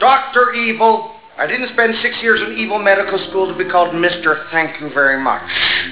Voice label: doctorevil 8000hz